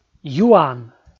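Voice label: "юан", Komi, male; kpv audio dict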